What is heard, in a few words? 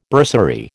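"bursary", American English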